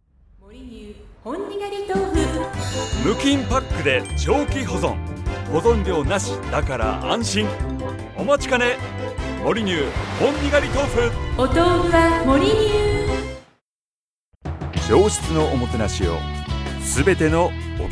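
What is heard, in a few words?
Japanese, male, commercial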